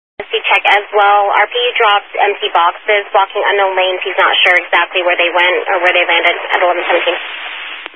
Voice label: Highway Patrol, Phoenix Metro East Area, scanner

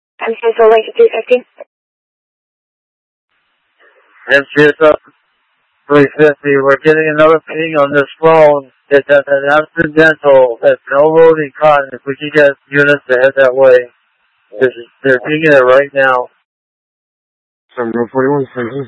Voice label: Mutual Aid-Intersystem Interop B, scanner

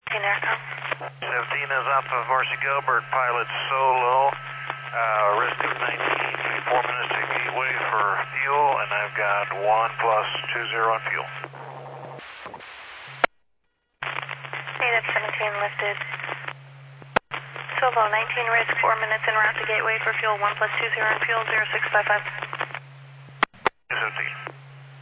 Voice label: Medevac, scanner; NativeAirAmb

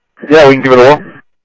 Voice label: Interop Mutual Aid, scanner audio; ThompsonPeakHigh; G1 Interop

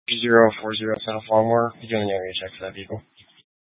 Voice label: Mesa PD Dispatch

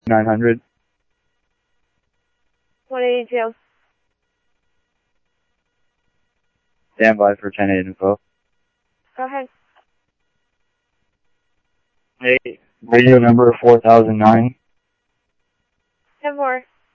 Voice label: Security, scanner audio

